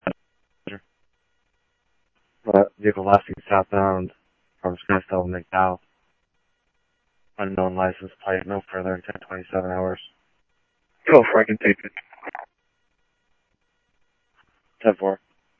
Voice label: Police, scanner audio trunk; H-Scottsdale; SCTSDLE PD - PD1 ScottsdalePolice